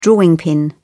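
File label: "drawing pin", British English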